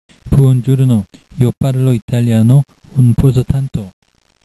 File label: italian